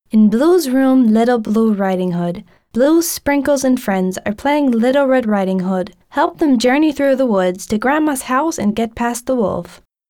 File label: Spot; Little Blue Riding Hood